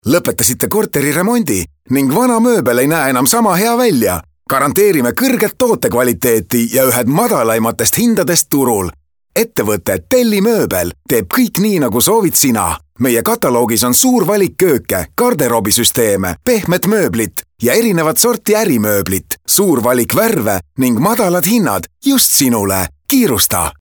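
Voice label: Estonian male Active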